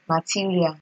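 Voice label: "material", Nigerian Pidgin, female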